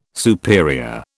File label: "superior", British